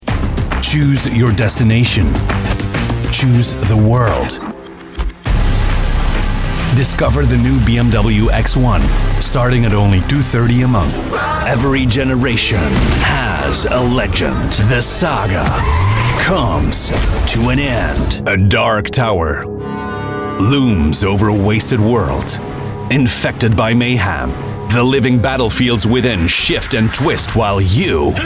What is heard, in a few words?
English Commercial